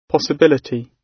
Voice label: "possibility", gb